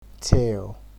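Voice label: "tail", Nigerian Pidgin, male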